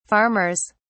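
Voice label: "farmers", British English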